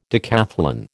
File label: "decathlon", American